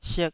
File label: "sik2", Taiwanese syllable